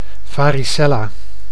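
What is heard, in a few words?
"varicella", Dutch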